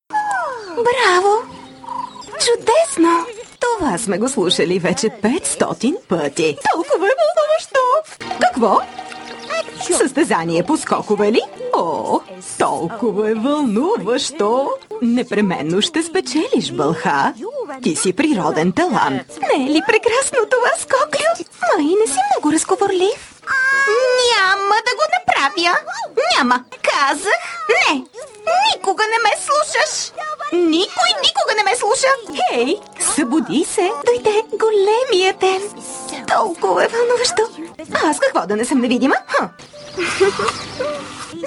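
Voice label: Bulgarian, female, character dubbing; voice over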